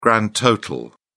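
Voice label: "grand total", English, British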